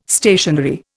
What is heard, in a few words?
"stationary", Indian